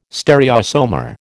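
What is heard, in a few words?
"stereoisomer", American English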